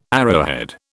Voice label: "arrowhead", British